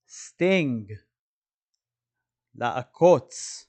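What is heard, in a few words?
"sting" English, "לעקוץ" Hebrew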